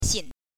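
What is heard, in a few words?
"xin", Mandarin Chinese, neutral tone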